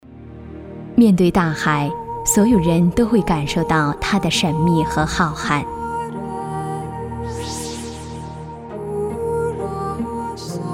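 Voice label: chinese mandarin female commercial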